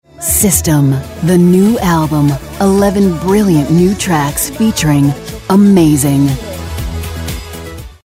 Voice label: Authoritative